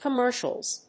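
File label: "COMMERCIALS", English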